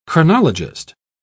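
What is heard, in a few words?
"chronologist", British English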